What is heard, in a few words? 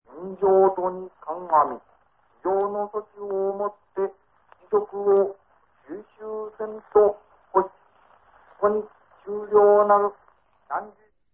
announces Japanese Surrender in Japanese; WWII; Hiro Hito